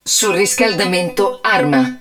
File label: HUD WEAPON OVERHEATING, Italian; XBOX; BattleEngineAquila